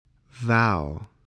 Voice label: "vow", spelling